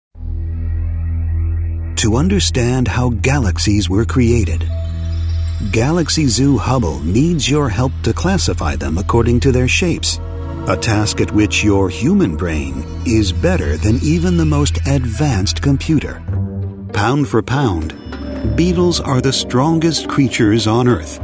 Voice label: Documentary